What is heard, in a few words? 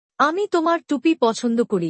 I like your hat. - Bangla; Dress and Appearance